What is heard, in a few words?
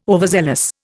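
"overzealous", Indian English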